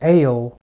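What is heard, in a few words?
"ale", English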